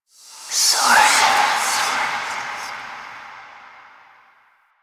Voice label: Whispers; Sorren; Warframe; Jade Shadows; Quests